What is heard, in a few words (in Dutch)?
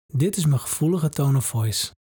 Gevoelige tone of voice